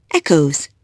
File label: echoes